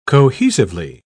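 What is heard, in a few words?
"cohesively", British English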